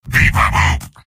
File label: soldier robot